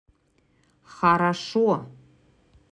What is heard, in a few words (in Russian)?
"Хорошо", русский; транскрипция [хара’шо]